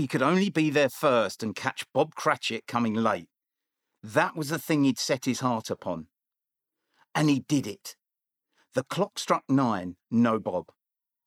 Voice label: Xmas Carol Bookread